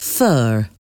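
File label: "fur", English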